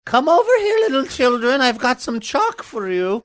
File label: saying come over here little children elderly man